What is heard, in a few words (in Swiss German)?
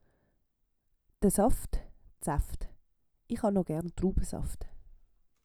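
"de Saft", Swiss German; Wortschatz Kapitel 8; Kapitel 8 Zusatzwortschatz 2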